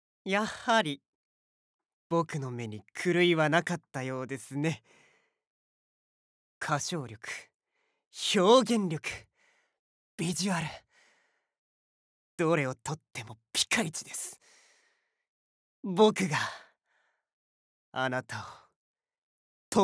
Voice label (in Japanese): chitekina seinen, voice sample